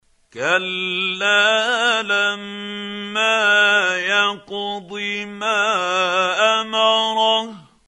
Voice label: quran, Hussary, tafseer; Abasa